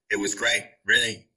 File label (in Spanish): Voice Acting es M